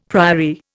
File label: "priory", Indian; Dictionary